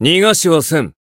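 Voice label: Voice finish, Japanese; claude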